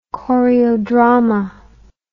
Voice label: "choreodrama", English

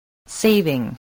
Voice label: "saving", english spelling

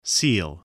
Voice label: "seal", voice spelling

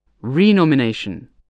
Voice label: "renomination", English

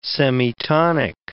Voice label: "semitonic", English

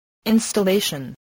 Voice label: "installation", voice spelling

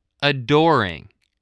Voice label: "adoring", English voice

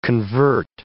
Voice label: "convert", English

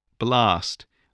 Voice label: "blast", spelling

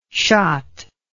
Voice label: "shott", English

